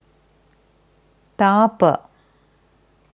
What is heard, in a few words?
Tapa, Sanskrit; Sanskrit-Woerterbuch